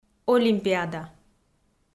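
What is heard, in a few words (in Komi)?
"олимпиада", Komi, female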